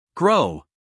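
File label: "grow", American English, male; everyone-can-use-english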